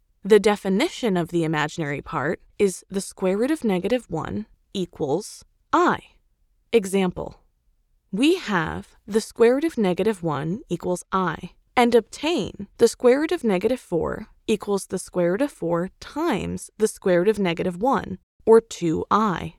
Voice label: eLearning